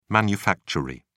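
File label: "manufactory", English, British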